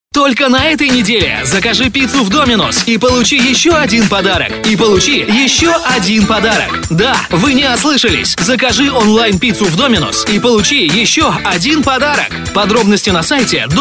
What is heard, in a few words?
russian others